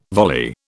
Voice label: "volley", British